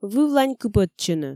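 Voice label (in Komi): "вывлань кыпӧдчыны", Komi, female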